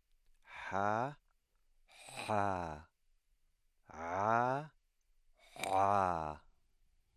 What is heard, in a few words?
pharyngeal epiglottal